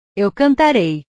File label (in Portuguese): "eu cantarei", portugues; portugues/verbos